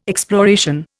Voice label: "exploration", Indian

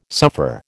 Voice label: "suffer", American

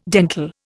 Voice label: "dental", Indian

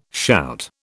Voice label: "shout", British

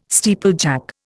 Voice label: "steeplejack", Indian

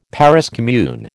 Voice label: "Paris commune", American

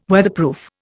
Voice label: "Weatherproof", Indian

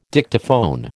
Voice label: "Dictaphone", American

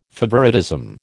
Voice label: "favouritism", American

